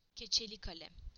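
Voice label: "felt tip pen", Turkish; PictureDictionary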